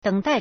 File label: "deng3 dai4", Chinese